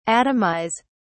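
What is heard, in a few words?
"atomise", English, uk British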